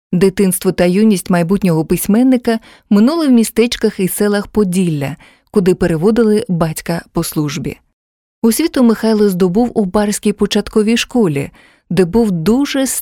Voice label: locutora ucraniana ukrainian voiceover, Ukrainian female voice talent